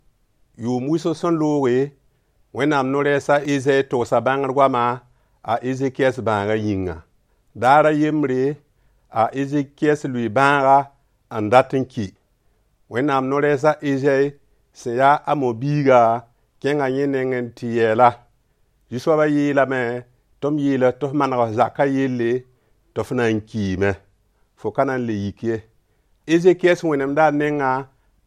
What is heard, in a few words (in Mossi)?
Mossi Moore; Dieu est avec nous